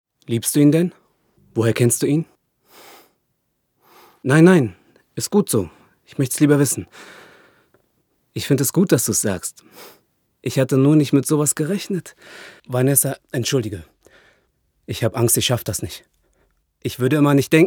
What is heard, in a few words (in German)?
Synchron Beziehungsdialog